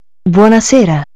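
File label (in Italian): "buonasera", Italian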